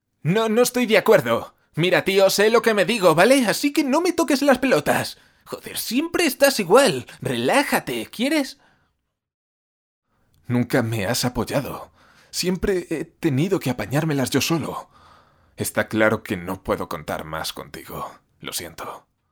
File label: film dubbing